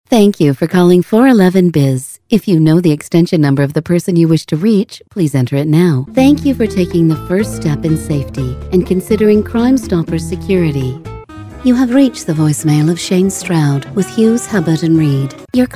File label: US PHONE